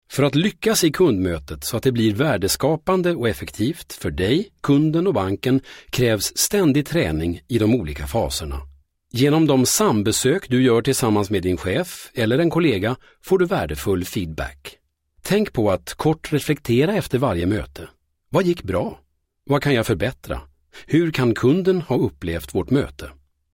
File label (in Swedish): svensk man